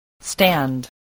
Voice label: "stand", voice

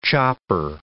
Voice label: "chopper", English

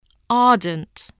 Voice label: "ardent", English

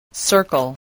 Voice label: "circle", English, voice

